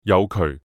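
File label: "誘渠", Cantonese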